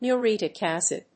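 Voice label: "muriatic acid", English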